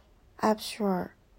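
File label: "absurd", Taiwan, female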